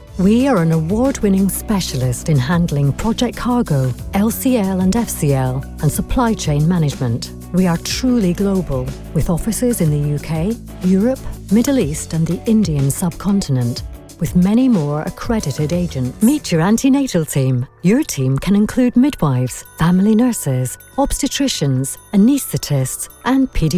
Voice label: english scottish commercial; Englisch (Britisch) Englisch (Schottisch)

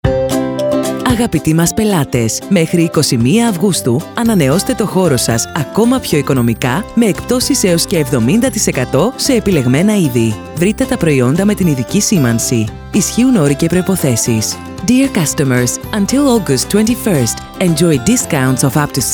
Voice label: greek announcements; Griechisch

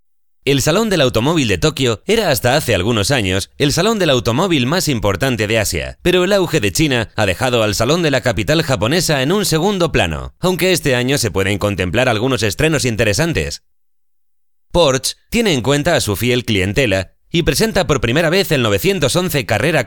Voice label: spanish commercial

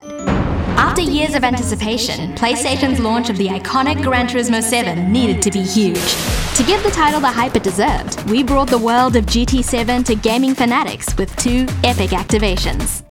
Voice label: english new zealand commercial

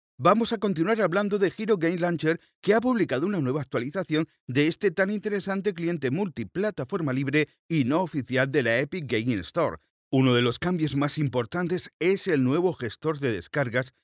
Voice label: spanish podcasts

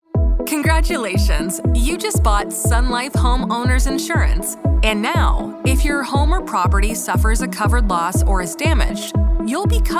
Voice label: english canadian corporate videos

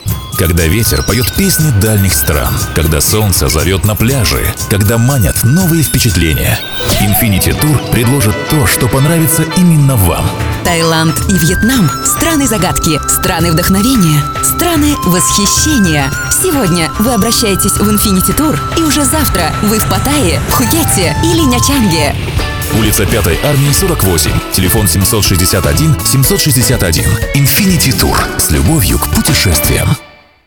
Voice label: russian commercial

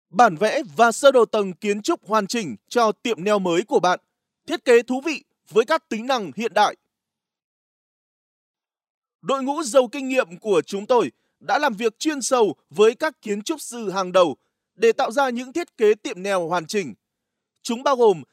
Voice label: vietnamese promos

